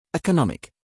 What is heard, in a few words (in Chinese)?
英籍外師念 economic